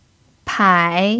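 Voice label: "pai" third tone, chinese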